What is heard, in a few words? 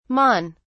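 "mon", British English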